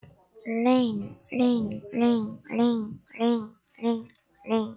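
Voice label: "ring", spoken word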